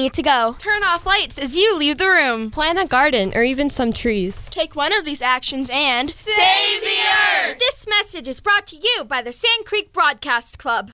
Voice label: Climate Change PSA; Sand Creek Middle School